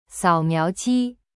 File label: "sao3miao2ji1", Chinese; cyberchinese